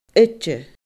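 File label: "oide", Scottish Gaelic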